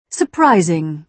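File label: "surprising", British English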